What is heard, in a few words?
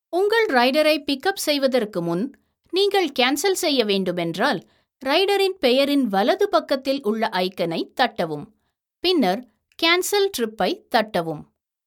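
Tamil female app; voice-over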